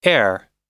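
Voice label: "heir", American English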